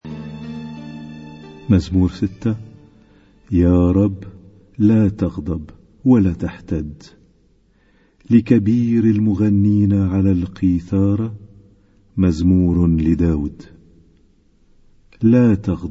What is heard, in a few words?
Arabic Psalm Zaboor